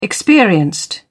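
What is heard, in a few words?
"experienced", English, British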